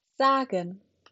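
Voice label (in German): "sagen", German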